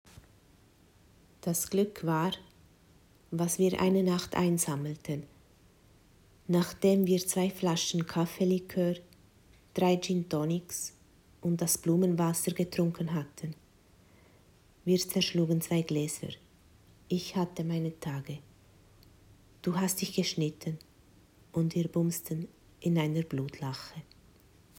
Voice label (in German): Hochdeutsch